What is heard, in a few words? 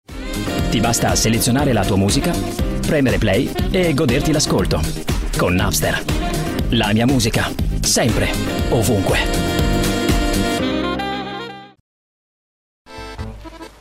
italian male voices